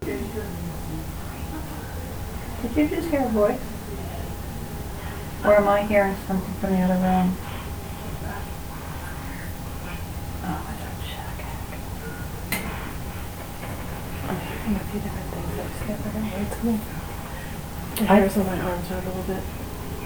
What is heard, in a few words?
whispers bedroom